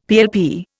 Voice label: "PLP", Indian; Dictionary

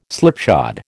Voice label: "slipshod", American

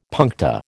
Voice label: "puncta", American

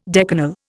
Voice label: "decanal", Indian

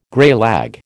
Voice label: "greylag", American

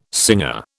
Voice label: "singer", British

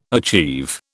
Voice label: "achieve", British

